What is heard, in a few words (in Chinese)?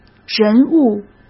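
"人物", Mandarin Chinese; hsk; chinese-flashcards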